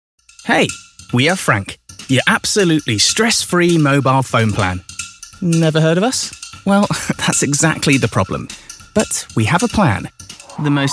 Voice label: commercial